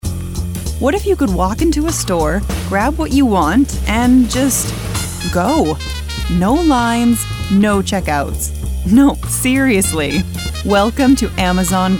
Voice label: english female voices british accent